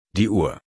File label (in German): "uhr" German; GENUL FEMININ